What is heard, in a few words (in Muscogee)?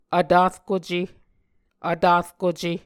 "vtarkuce", Muscogee